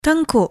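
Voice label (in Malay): "tengkuk", Malay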